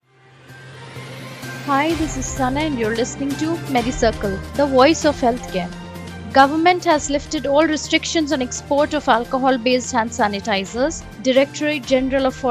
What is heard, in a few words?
english news